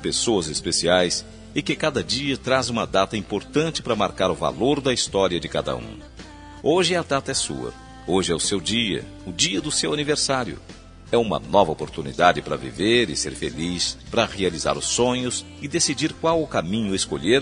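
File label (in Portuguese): primo masc distante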